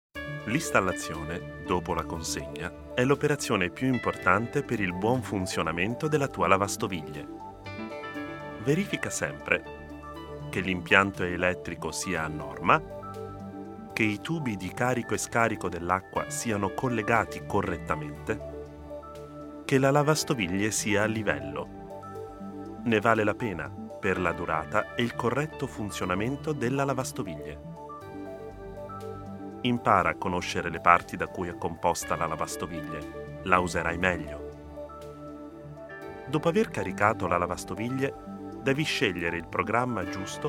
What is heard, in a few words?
corporate Italian